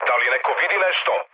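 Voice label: Serbian chat; War Thunder; speech srb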